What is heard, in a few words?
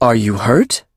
touch, en; Cookie Run